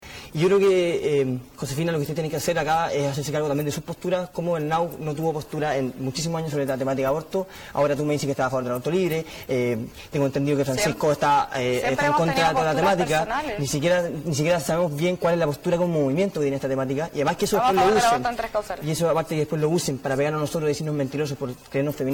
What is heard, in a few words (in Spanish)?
cuña